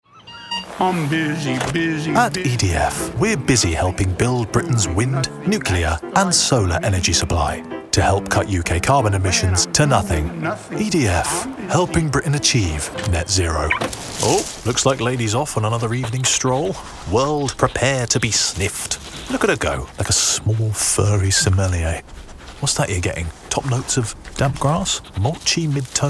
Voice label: commercial montage